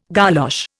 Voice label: "golosh", Indian